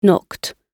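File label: "knocked", British English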